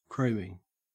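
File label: "chroming", English, Australian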